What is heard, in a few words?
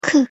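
"ㅋ", Korean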